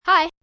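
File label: Hi Child Male, Greetings, child, boy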